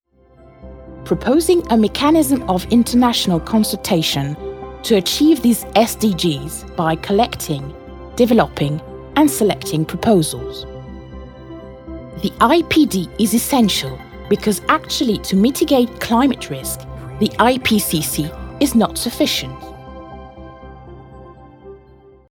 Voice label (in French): voix off femme uk